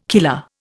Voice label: "killer", Indian